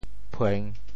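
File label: "phueng3", Teochew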